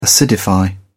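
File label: "acidify", British English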